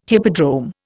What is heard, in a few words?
"hippodrome", Indian